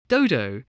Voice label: "dodo", spelling

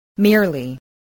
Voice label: "merely", voice, spelling

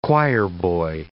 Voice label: "choirboy", English

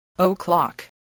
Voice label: "o' clock", English

